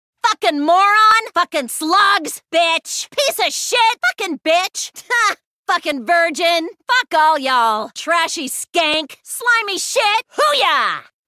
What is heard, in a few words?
swearing excessively